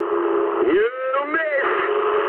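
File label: bomb failure mood high, speech English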